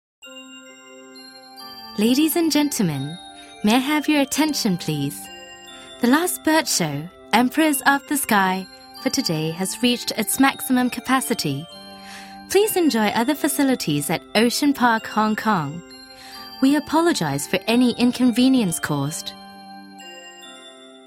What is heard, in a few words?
Announcement; Ocean Park